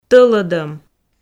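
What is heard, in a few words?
"тӧлӧдӧм", Komi, female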